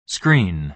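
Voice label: "screen", American English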